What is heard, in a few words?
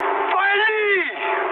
attack position, mood high, speech ru; War Thunder